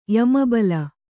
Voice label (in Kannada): "ಯಮಬಲ", Kannada